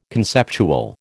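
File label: "conceptual", American English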